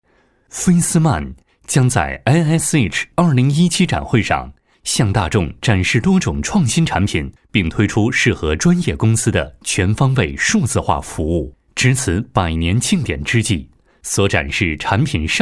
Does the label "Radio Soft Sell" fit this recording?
No